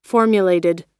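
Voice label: "formulated", American English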